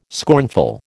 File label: "scornful", American